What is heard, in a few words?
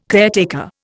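"caretaker", Indian English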